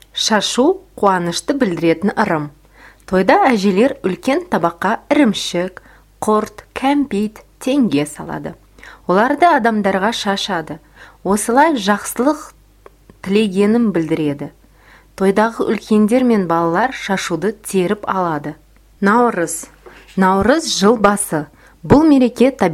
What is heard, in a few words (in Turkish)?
Kazakca Kadin